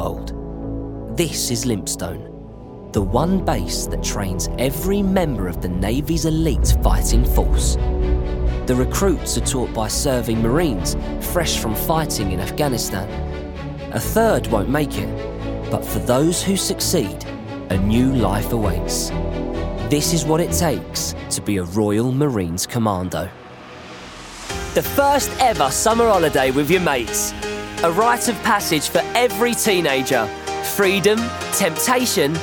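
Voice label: Documentary, Light tone. Young, light & peppy. London.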